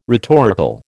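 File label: "rhetorical", American English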